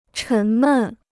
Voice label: "沉闷", Chinese

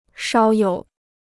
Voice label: "少有", Chinese

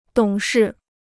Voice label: "懂事", Chinese

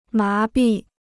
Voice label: "麻痹", Chinese

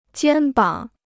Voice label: "肩膀", Chinese

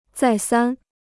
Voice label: "再三", Chinese

